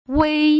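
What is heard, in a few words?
"wei", Putonghua; 零聲母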